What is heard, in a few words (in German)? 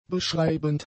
"beschreibend", German; adjectives